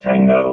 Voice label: "tango", robot

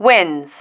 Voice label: "wins", female

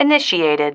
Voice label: "initiated", female

